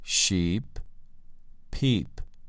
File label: "sheep" "peep"